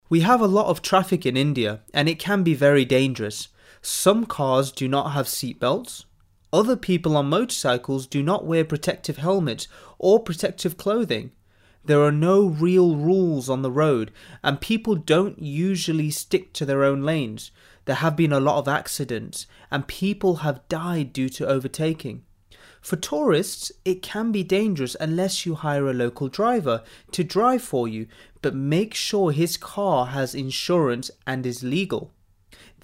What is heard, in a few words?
Indian English; Dravid